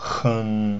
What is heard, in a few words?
"hun", pinyin sounds; Mandarin Chinese Pronunciation